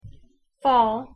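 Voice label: "fall", English pronunciation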